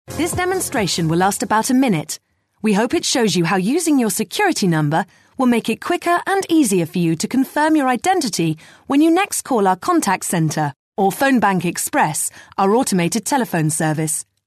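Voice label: English UK female IVR; ivr voice prompts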